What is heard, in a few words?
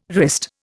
"wrist", Indian